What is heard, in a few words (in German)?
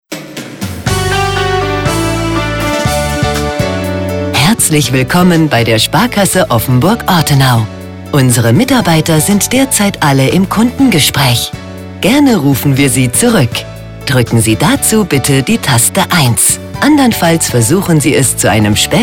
telefonansagen; sparkasse